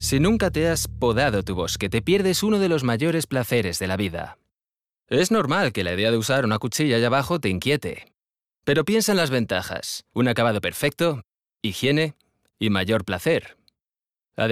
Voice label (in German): lippensynchron; LOFT VOICES